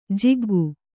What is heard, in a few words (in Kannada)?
"ಜಗ್ಗು", Kannada